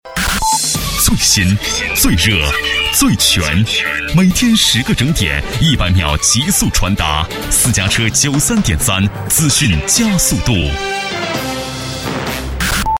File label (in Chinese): 男3-广告-百秒速递片头, 国语男声配音